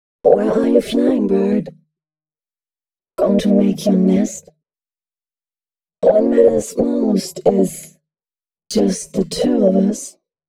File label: female spoken hooks, dance ultra voice; Mutekki Media; Dance Ultra Voice VOL-1